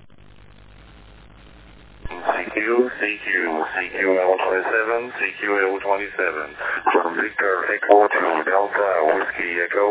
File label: dstar calling cq; ao-27